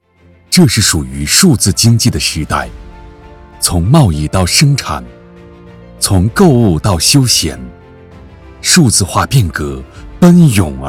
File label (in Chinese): 男, 产品宣传大气浑厚